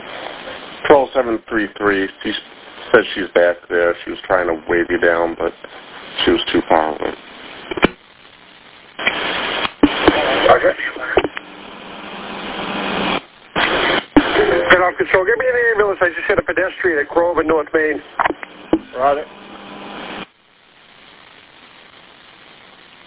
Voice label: Police Radio